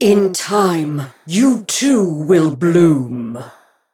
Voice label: Female Botani Play